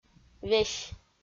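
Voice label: "весь", Komi, female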